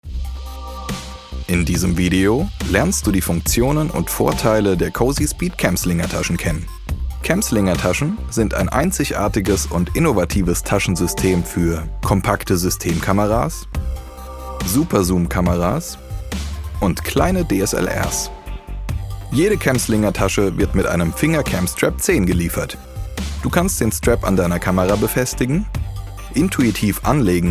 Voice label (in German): Erklaerfilm; sprecher